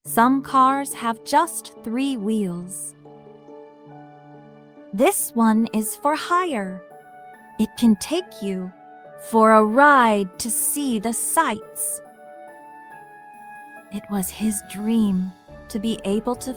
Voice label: Decodable Reader with ee Words; a ride on a spell 5b